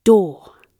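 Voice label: "door", British English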